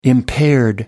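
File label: "impaired", British English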